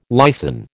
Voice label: "lysin", American

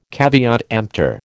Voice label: "caveat emptor", American

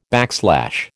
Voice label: "backslash", American

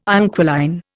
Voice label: "inquiline", Indian

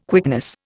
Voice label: "quickness", Indian

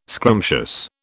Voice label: "scrumptious", British

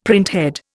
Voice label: "printhead", Indian; Dictionary

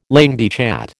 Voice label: "langue de chat", American

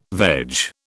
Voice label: "veg", British

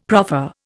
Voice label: "proffer", Indian; Dictionary

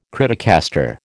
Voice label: "criticaster", American

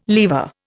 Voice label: "lever", Indian

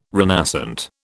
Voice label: "renascent", British

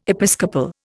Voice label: "episcopal", Indian

